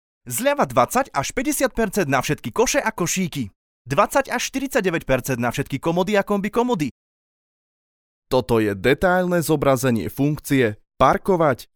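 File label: Slovak, male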